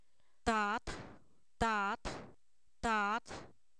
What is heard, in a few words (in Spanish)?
padre, Zapoteco, san miguel albarradas; humano; INALI